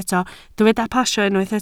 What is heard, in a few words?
"pasio", Welsh